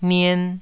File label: "nien2", taiwanese